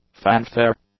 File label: "fanfare", English; nouns